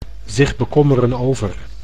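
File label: "zich bekommeren over", Dutch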